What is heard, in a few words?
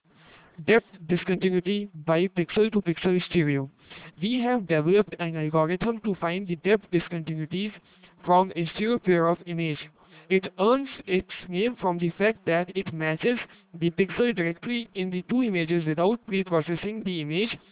Voice Comp Decomp